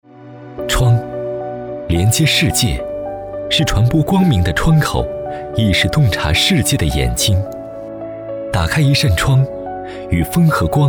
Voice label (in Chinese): 男 宣传片 走心 有感情; 曼亭鸟门窗